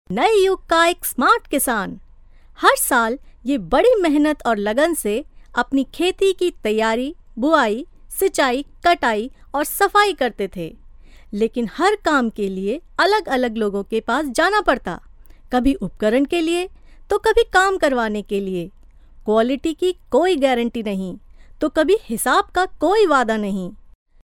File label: locutora india hindi voiceover; locutora de la India